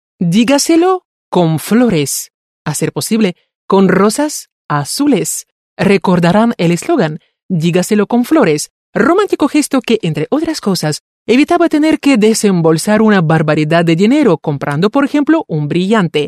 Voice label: spanish female